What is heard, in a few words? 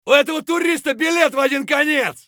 bear battlecry; eft